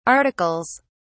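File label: "articles", English, British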